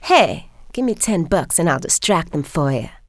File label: distract, female; kingpin; yolanda